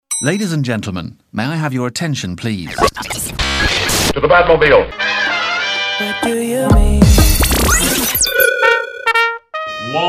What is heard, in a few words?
Announcement; KZOW; Waldorf U